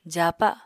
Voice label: "jaapa", Apatani

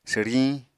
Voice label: "swriñ", Apatani

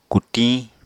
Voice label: "kutiñ", Apatani

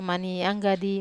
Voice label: "mani anggadi", Wamesa

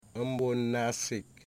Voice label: "knee", Wamut

